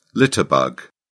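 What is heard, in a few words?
"litterbug", British English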